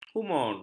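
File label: "humor", Malay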